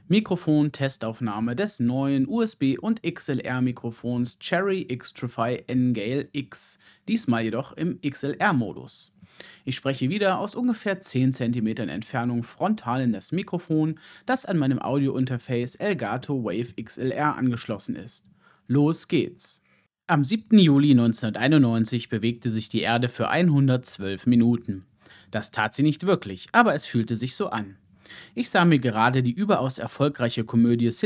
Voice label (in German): testaufnahme xlr